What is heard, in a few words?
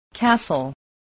"castle", spelling